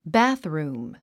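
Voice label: "bathroom", English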